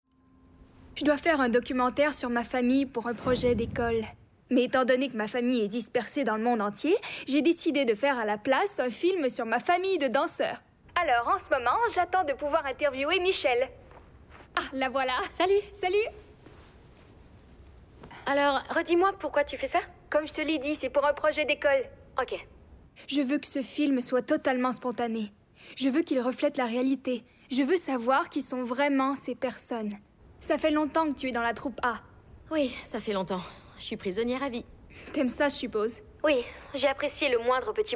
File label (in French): Doublage; Next Step